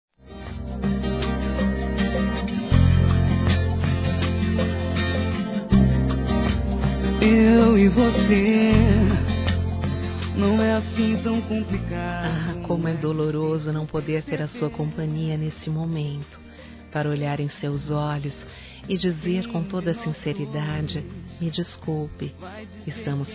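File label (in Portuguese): Amante, Desculpas, Telemensagens, female; Telemensagens 2017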